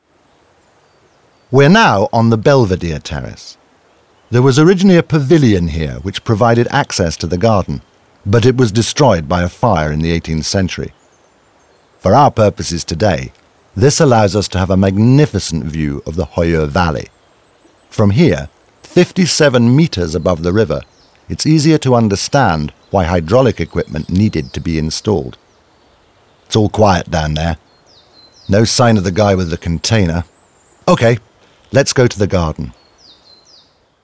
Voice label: audioguide en enfant; jardin; modave